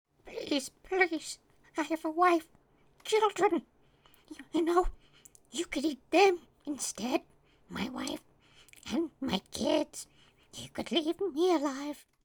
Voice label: Male Beg; a dump in the dark